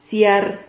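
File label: "ciar", Spanish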